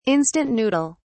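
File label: "instant noodle", British English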